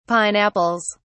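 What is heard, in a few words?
"pineapples", British English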